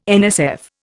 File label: "NSF", Indian